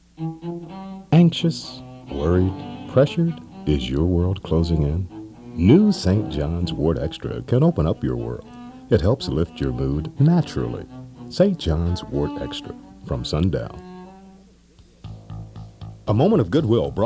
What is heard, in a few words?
voiceovers